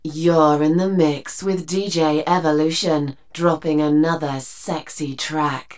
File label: DJ drop produced fat echo; Voice-over demos